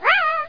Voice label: uh oh

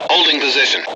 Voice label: me hold, M Voice English

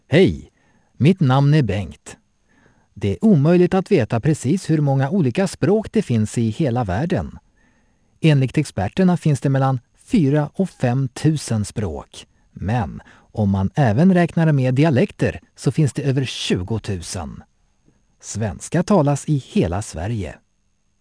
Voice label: swedish

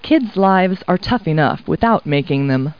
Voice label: people, kid

